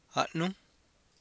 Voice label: "a'núj", Copala Triqui

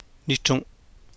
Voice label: "nichru̱n'", Copala Triqui

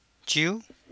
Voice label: "chihúj", Copala Triqui

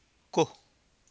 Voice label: "coj", Copala Triqui

